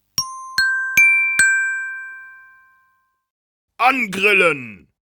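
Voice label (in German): "angrillen", German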